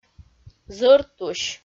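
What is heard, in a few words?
"зӧртусь", Komi-Zyrian, female; audio dict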